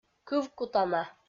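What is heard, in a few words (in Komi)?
"кывкутана", Komi, female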